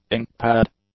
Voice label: "ink-pad", English; nouns

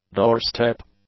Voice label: "doorstep", English; nouns

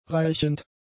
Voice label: "reichend", German; adjectives

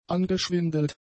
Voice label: "angeschwindelt", German; adjectives

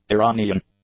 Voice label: "Iranian", english; adjectives

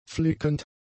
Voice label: "flickend", German; adjectives

